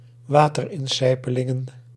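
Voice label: "waterinsijpelingen", Dutch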